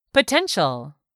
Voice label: "POTENTIAL", English; 3000 words

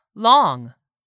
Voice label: "LONG", english; 3000 words

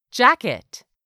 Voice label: "jacket", English; 3000 words

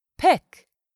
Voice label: "pick", English; 3000 words

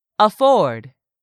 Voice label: "AFFORD", english; 3000 words

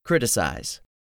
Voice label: "criticize", english; 3000 words

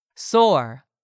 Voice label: "SORE", english; 3000 words

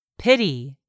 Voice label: "pity", English; 3000 words

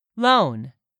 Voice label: "loan", English; 3000 words

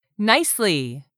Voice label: "nicely", English; 3000 words

